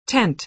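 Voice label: "tent", American English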